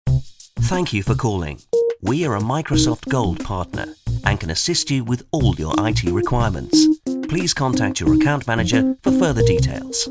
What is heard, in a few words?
IT ON HOLD